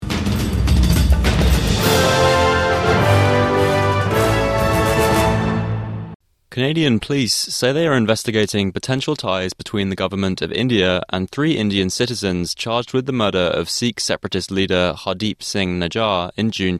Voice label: english; three charged with sikh separatists murder

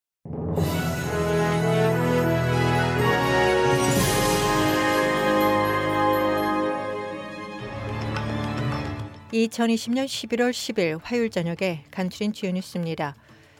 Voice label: korean; 2020년 11월 10일 화요일 저녁의 SBS 뉴스 아우트라인입니다.; SBS News Outlines... 2020년 11월 10일 저녁 주요 뉴스

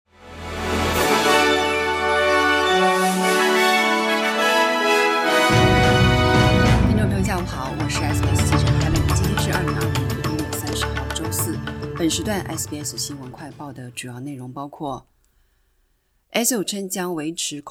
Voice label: mandarin newsflash; 悉尼发现装满爆炸物的房车 ASIO维持恐怖威胁级别; SBS Chinese; SBS新闻快报

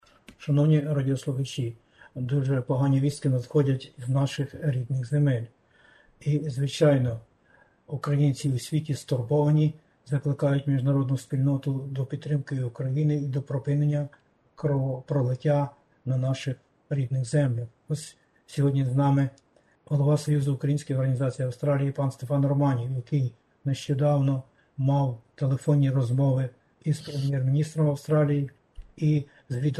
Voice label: ukrainian; SBS Ukrainian; Австралія: Прем'єр-міністр і Лідер опозиції одностайні у підтримці України

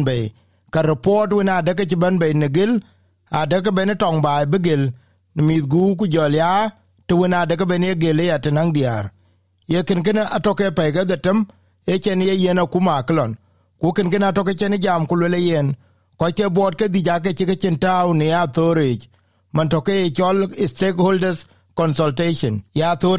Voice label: dinka, podcast; Acïï athöör cë bɛ̈ibei nyuɔɔth cë man na lɔ nïïn ke dhoŋuan ke tiŋ adhil thou në tɔŋbaai; SBS Dinka

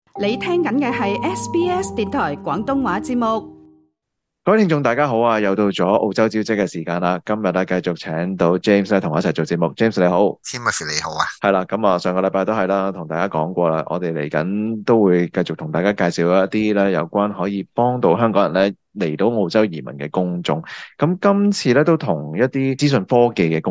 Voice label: cantonese; 【澳洲招職】軟件開發工程師 澳洲專才簽證之選; SBS Chinese

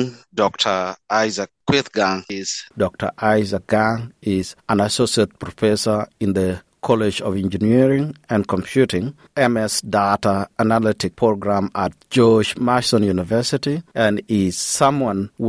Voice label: dinka; Mɛ̈tmɛ̈t ci thuɔ̈ŋjäŋ and Nuer mät thöök ye Google waar yic.; SBS Dinka

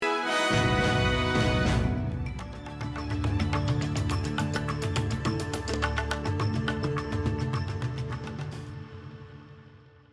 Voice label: gujarati newsflash; ૧૨ ફેબ્રુઆરી ૨૦૨૬: ઓસ્ટ્રેલિયાની મુખ્ય અપડેટ; SBS Gujarati

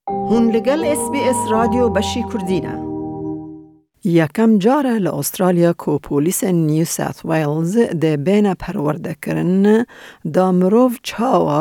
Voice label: kurdish; Polîs dest bi amadekariya perwerdeya bo kesên otîzim heye dikin; SBS Kurdish

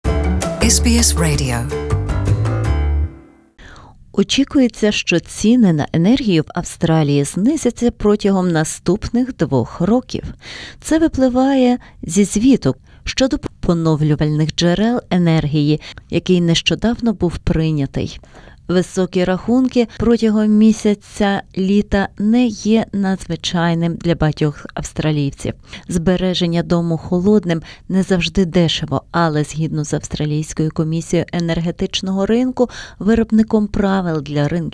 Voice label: ukrainian; ENERGY PRICES; SBS Ukrainian

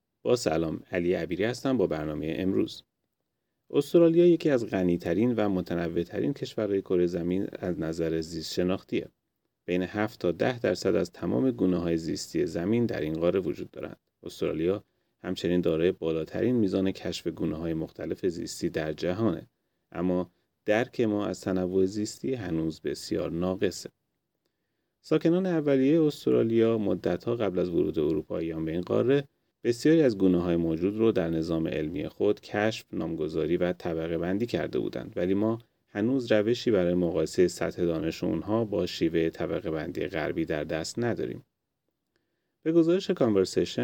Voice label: persian; SBS Persian; مسابقه برای ثبت تمام گونه های زیستی استرالیا

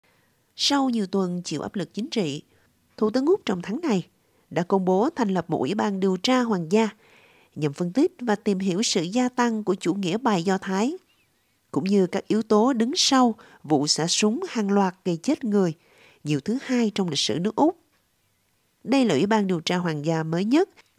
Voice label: vietnamese explainer; SBS Vietnamese; Ủy ban Điều tra Hoàng gia là gì và có vai trò ra sao?

